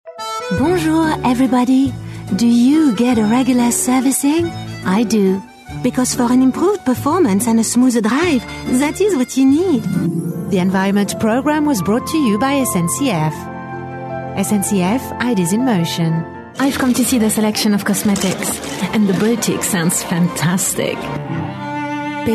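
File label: French, female, English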